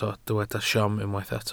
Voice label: "siom", Welsh